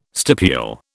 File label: "stipule", British